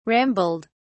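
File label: "rambled", British English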